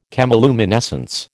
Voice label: "chemiluminescence", American